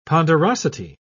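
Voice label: "ponderosity", British English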